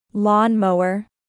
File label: "lawn mower", English